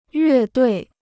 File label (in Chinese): "乐队", Chinese